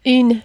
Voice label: "une", French

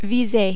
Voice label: "viser", French

